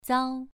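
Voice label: "zao2", Chinese pronunciation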